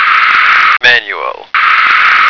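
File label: "manual", American English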